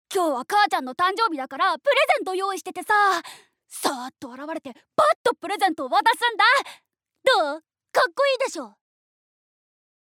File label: Young boy